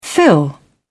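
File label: "fill-in", British English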